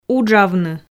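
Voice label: "уджавны", Komi, female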